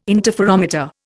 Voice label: "interferometer", Indian